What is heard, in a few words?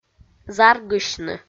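"заргысьны", Komi, female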